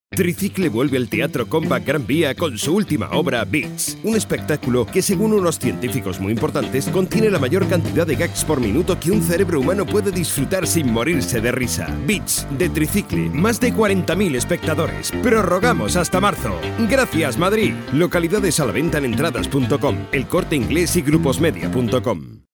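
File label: Spanish Spain, male, voice over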